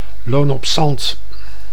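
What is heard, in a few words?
"Loon op Zand", Dutch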